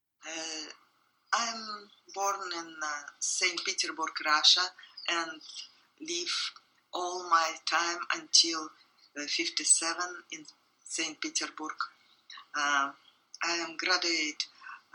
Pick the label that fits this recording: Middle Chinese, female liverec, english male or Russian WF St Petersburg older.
Russian WF St Petersburg older